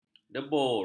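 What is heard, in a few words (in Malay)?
"debur", Malay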